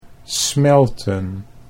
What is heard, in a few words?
"smelten", Dutch